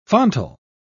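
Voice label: "fontal", British English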